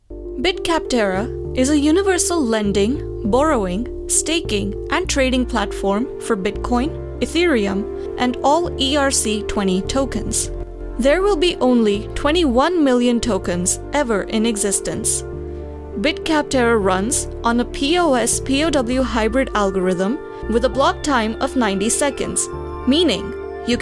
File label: Explainer English, female for american english